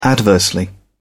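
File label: "adversely", British English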